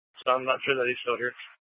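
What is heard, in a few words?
Police Department North Dispatch, scanner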